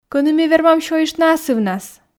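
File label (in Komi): Komi, кӧні ми вермам сёйыштны асывнас, female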